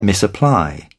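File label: "misapply", British English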